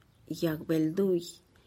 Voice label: "yag belduih", tree for cherrylike fruit, tlacochahuaya